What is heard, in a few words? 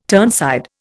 "turnside", Indian